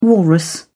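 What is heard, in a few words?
"walrus", English, British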